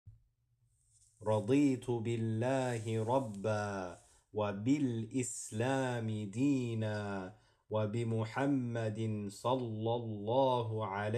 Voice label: morning radeetu Arabic